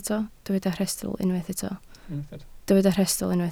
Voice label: "rhestl", Welsh